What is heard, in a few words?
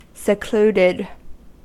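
"secluded" English, US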